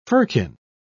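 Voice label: "firkin", British English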